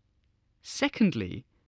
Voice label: "secondly", english spelling